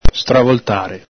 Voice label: "stravoltare", Veneto

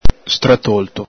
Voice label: "stratolto", Veneto

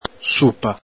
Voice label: "supa", Veneto

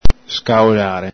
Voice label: "scaolare", Veneto

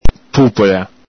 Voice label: "pupola", Venetian

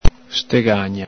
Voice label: "stegagna", Veneto

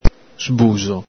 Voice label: "sbuxo", Veneto

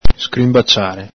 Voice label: "scrinbaciare", Veneto

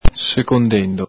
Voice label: "secondendo", Veneto

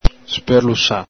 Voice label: "sperlusa", Veneto

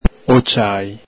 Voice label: "ociali", Venetian

